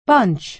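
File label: "bunch", American English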